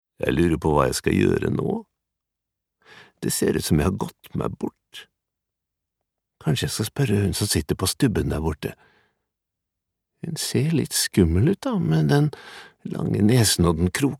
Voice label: no dub character